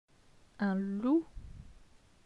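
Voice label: "Un loup", French; phonetique; les voyelles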